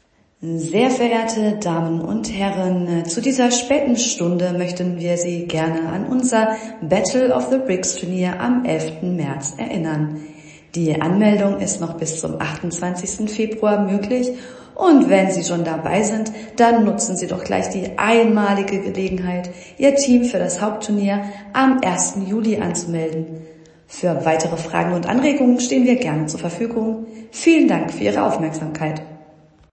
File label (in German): Werbung